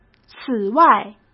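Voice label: "此外", Mandarin Chinese; hsk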